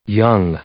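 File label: "young", English, male; adjective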